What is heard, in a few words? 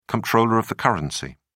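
"comptroller of the currency", English, British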